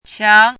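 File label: "qiang", pinyin, second tone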